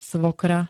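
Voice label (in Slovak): "svokra", Slovak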